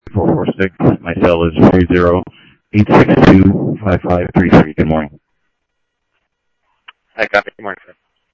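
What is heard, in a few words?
Simulcast police department, scanner audio; Mesa Simulcast; MESA PD 2 Centrl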